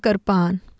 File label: "krpaan" knife, Urdu, F